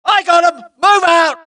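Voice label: suppress, dialogue, Allies; mohaa; Mission 1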